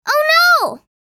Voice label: "Oh no", girl child kid